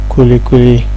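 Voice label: "kulikuli", Nigerian Pidgin, male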